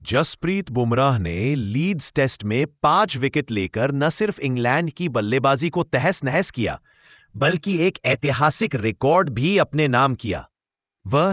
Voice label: cheerleader